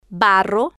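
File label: "barro", Spanish, female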